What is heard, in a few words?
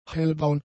"hellbraun", German; adjectives